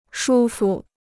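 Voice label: "舒服", Chinese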